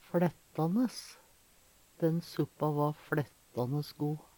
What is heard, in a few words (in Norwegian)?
"fLættanes", Numedalsmål